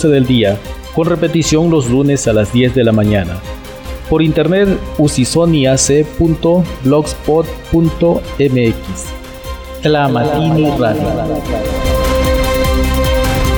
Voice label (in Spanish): spot las voces; tlamatini